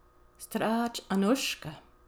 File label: Water St, Gaelic